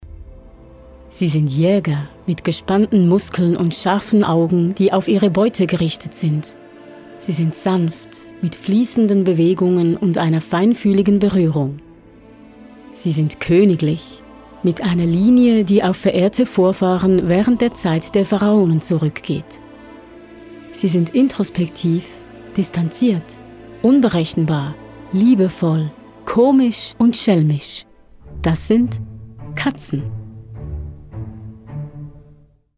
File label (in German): Hörprobe Kommentar